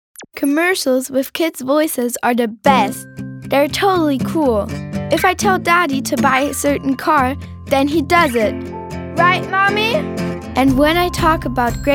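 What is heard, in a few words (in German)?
Werbung, English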